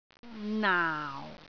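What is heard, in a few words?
"naau4", Cantonese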